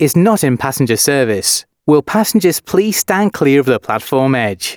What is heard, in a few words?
announcements male